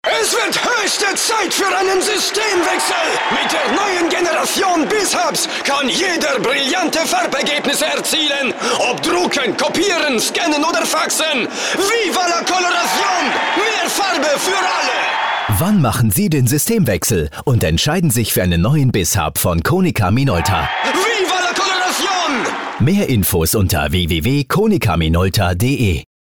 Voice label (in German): Funkspot; Konica Bizhub